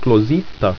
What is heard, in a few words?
"klozita", Ido